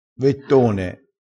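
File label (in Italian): "vettone", dialettale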